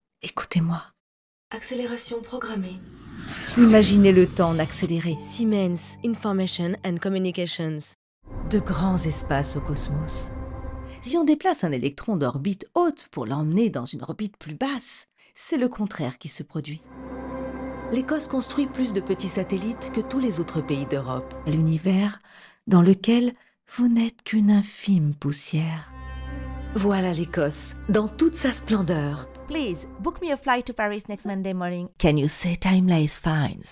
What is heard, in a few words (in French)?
VOIX LEGERES, voix-off